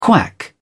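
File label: "quack", British English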